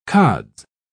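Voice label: "cods", English, British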